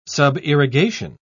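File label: "subirrigation", British English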